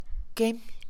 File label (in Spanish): pus, Mixe, Mujer; Cuerpo; INALI